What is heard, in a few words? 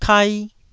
"kai1", taiwanese syllables